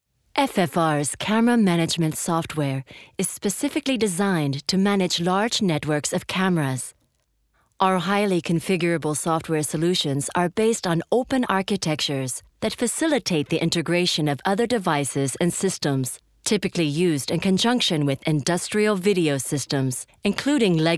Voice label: us image, englisch; sprecher

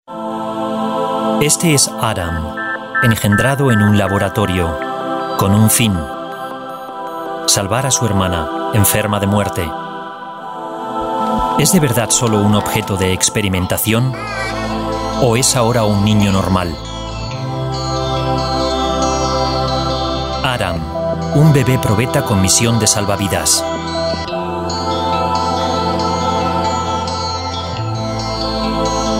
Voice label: image, spanisch

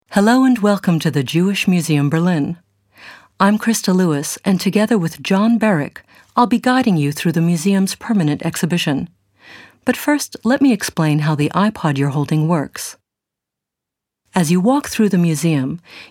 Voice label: us audioguide, sprecher englisch

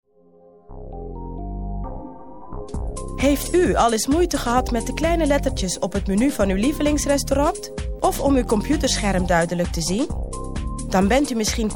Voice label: NL VO; sprecher

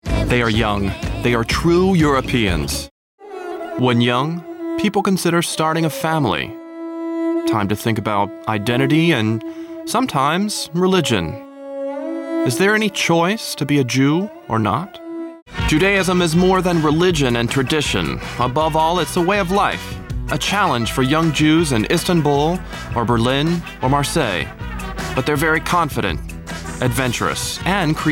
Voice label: trailer, englisch, sprecher

